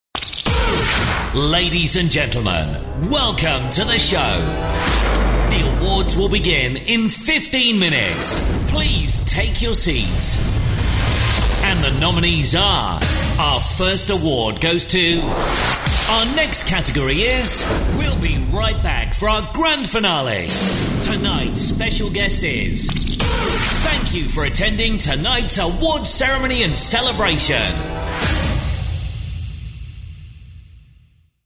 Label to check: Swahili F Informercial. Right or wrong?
Wrong